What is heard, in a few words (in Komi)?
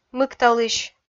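"мыкталысь", Komi, female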